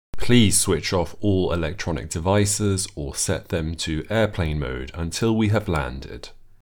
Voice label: electronic devices, received pronunciation